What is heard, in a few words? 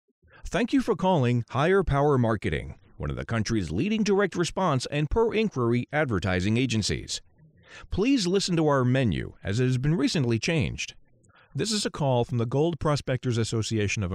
Phone Hold IVR Male